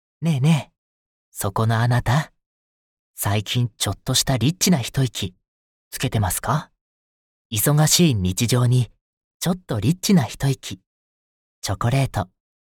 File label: narration man; ＣＭ